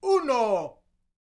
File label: MEXICO, MEXICAN; ILIO; Vocal Planet VOL-5